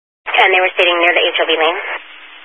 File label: Highway Patrol, Phoenix Metro East Area, scanner